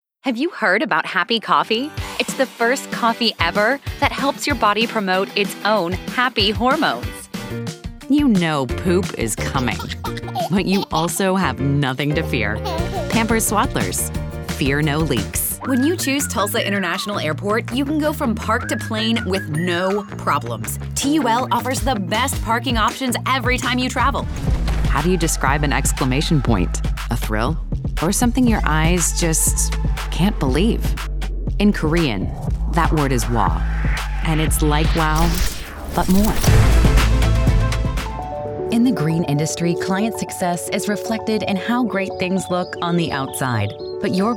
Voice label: Commercial

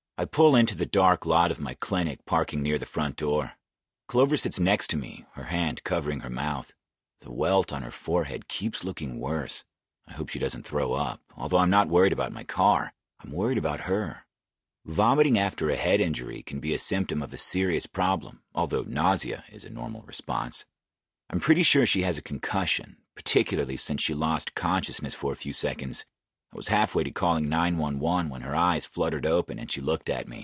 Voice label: Audio Book Sample

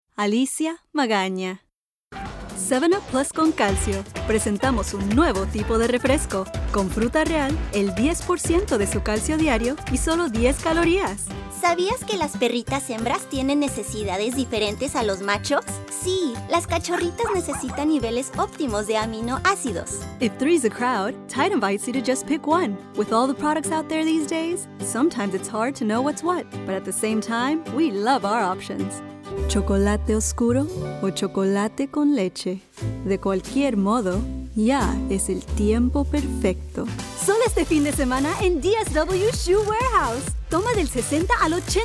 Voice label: Bilingual

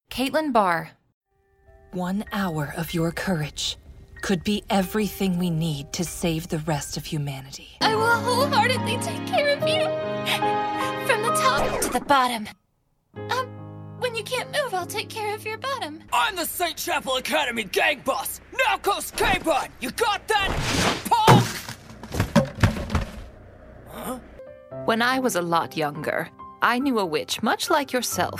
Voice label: Character

